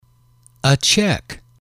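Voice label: "check", English, male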